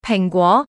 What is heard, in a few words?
slot fruits female